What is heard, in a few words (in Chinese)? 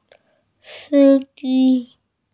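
"si1ji1", Mandarin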